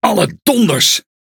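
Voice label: NL Speech Quick Commands Caramba, Dutch; World Of Warships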